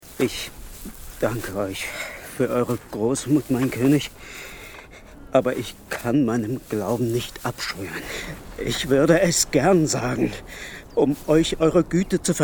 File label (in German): Hörspiel; Audible